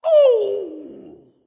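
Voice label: ouch, dragon; fafnir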